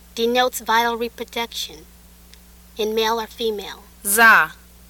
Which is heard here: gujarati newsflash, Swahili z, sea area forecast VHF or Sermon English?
Swahili z